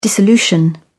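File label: "dissolution", British English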